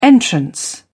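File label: "entrance", English, British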